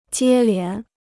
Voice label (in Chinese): "接连", Chinese